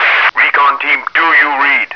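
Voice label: radio message; recorder; svencoop; hunger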